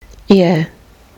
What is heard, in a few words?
vowel in clear, Kiwi English